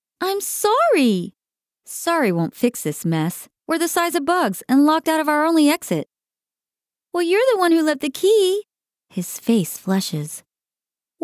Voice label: Audio books, American; USA

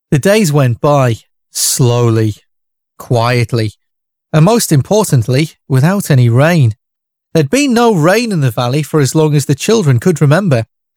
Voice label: Audio books; Voice-over demos; England

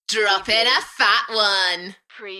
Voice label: UK female, Enthusiastic, DJ vocals; Droppin a phat one; Party / Dance; beanstalk audio

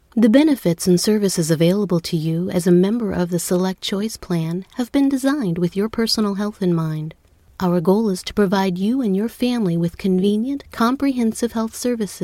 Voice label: Corporate, American Corporate; Voice-over demos

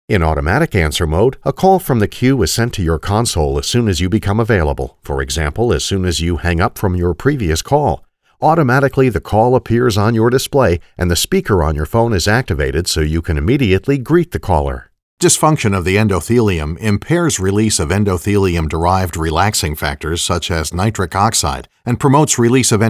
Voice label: Corporate, American Corporate; Voice-over demos

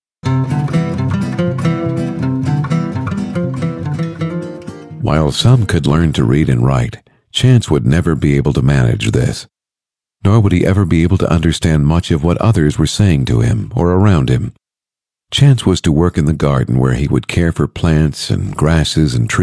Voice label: audiobooks; American; Voice-over demos

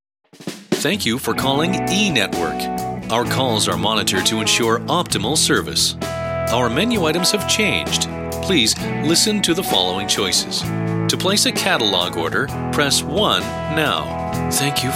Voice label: On Hold, US; Voice-over demos; on hold voices

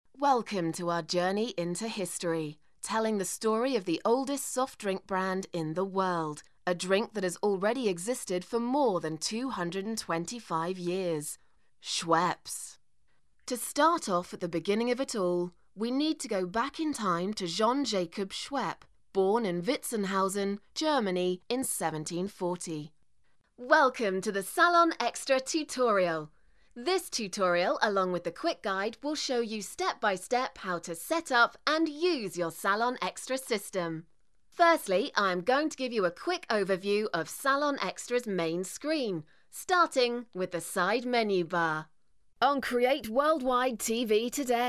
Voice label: Corporate, UK

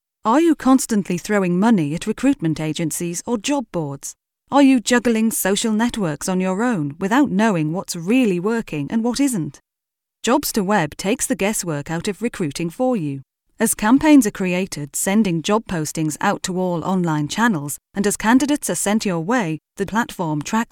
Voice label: Corporate, UK

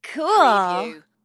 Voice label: Teen Girl Says Cool

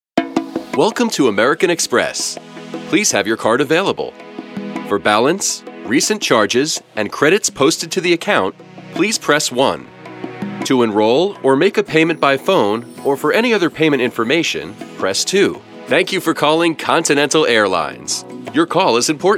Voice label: On Hold, US; Voice-over demos; on hold voices

